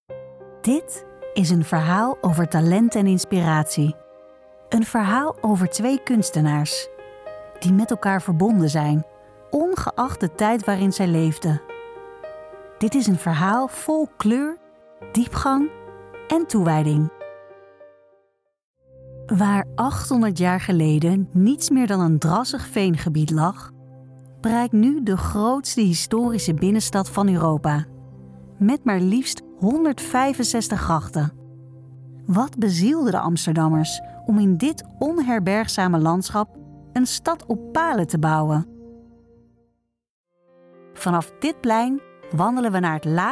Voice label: nl audioguide